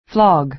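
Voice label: "flog", American English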